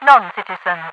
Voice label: noncitizen, radio voice; Half Life 2